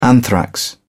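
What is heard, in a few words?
"anthrax", British English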